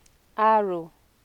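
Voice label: "aro", Nigerian Pidgin, female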